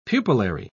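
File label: "pupillary", British English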